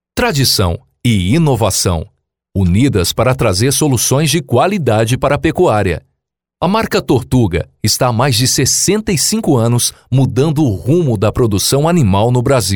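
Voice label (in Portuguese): comercial Portugal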